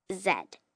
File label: "Zed", Letters, Alphabet, 1 child, 9 yrs; Talking; VoiceBox